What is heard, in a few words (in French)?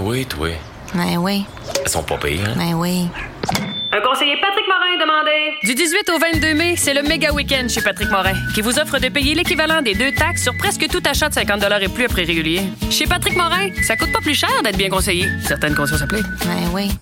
pub badminton francais quebecois naturel agacee choquee exasperee; patrickmorin